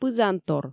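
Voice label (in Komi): "пызантор", Komi, female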